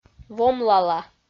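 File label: "вомлала", Komi, female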